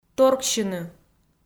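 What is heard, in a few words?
"торксьыны", Komi, female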